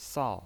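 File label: "sao", Mandarin Chinese pinyin voice, fourth tone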